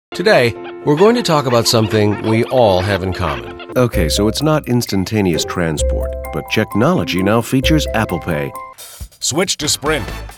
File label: Commercial